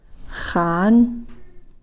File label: "xaan", Cow Creek